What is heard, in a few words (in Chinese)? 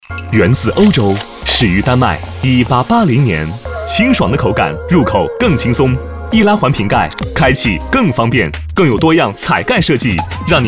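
男 广告 啤酒广告; 乐堡啤酒东区4广告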